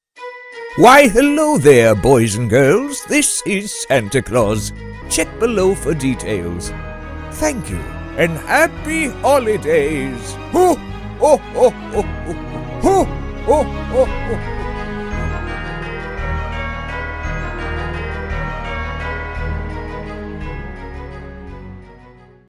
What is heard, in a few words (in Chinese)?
外籍英男-圣诞老人